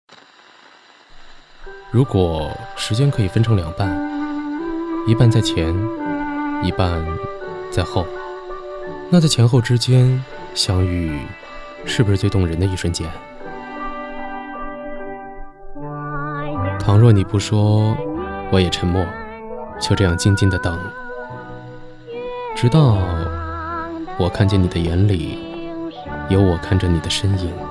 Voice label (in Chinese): 男声独白